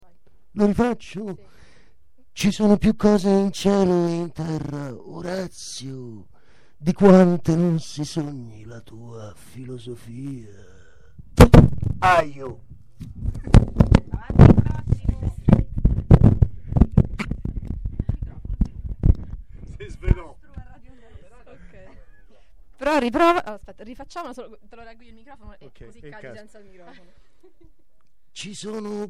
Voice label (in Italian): spot; tonfo